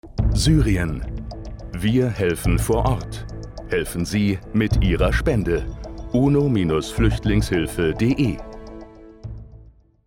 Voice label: TV Spot; uno

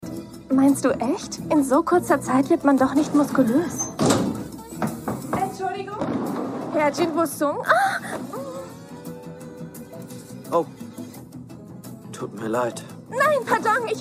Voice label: Synchron Anime Nurse

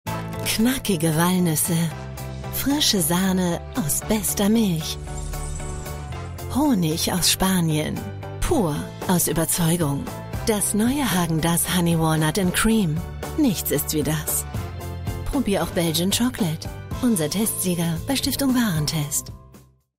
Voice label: Werbespot; Haagen-Dasz; LOFT VOICES

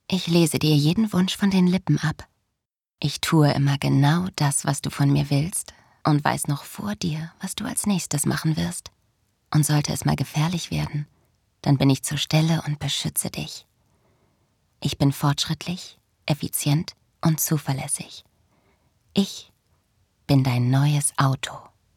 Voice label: werbung auto

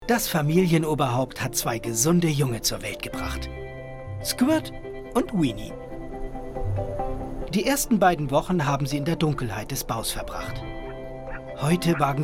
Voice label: erdmännchen doku; LOFT VOICES

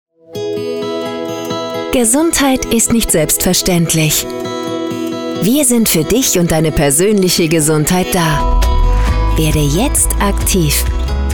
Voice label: Werbung OFF Gesundheit; IKK AKTIV

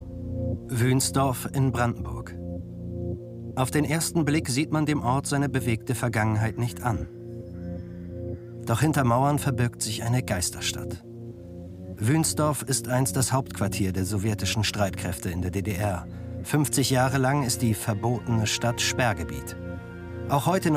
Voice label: Kommentar, German; ZDFinfo; Geheime Unterwelten der DDR - Atombunker und Schutzräume

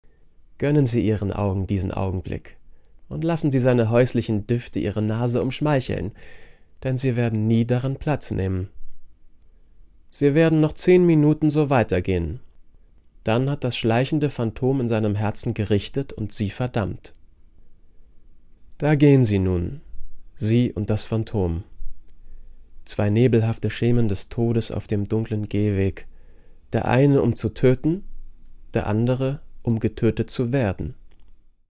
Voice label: off krimi monolog

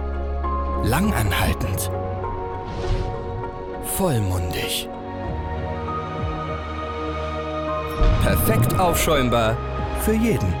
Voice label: Werbung Barista Milch; Weihenstephan

